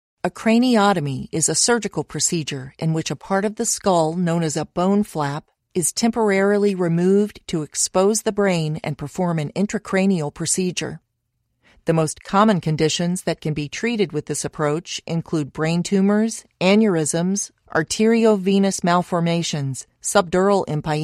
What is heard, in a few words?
medical e-learning, craniotomies